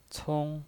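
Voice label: "cong", Mandarin Chinese pinyin voice, first tone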